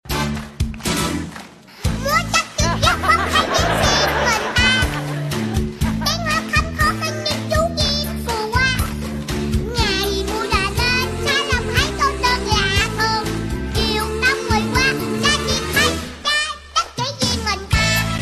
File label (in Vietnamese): Nhac Chuong, Giong Be Trai; remix tiktok